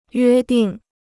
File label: "约定", Chinese